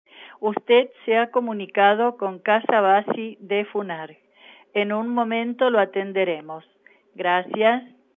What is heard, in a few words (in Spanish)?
mensajes telefonicos